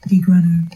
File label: friday Cornish